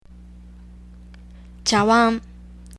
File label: rice bowl, japanese; cookware